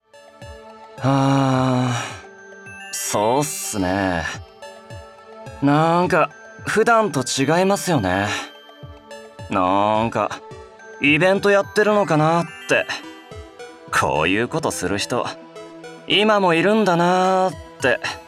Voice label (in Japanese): スカした若者風